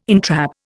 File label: "entrap", Indian